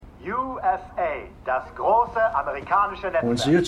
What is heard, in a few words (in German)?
TV Sprecher